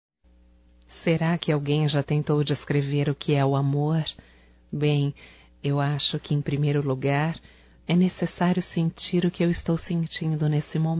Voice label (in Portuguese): ROMâNTICA (F); Românticas